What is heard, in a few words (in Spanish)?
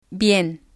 "bien", spanish